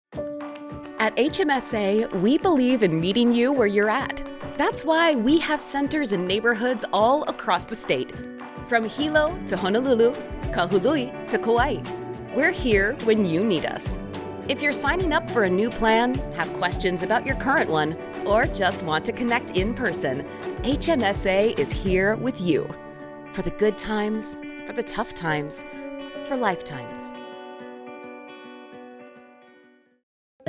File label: Hawaiian; voiceover